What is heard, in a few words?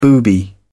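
"booby", British English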